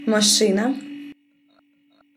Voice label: dialogue, Ukrainian